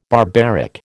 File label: "barbaric", American